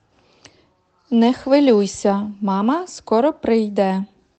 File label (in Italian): Ucraino